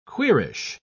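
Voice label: "queerish", British English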